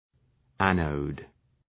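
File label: "anode", English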